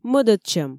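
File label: "мӧдӧдчӧм", Komi, female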